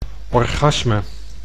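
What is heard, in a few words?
"orgasme", Dutch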